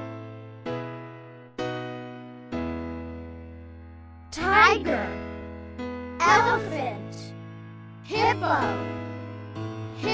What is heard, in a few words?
the animal chant slow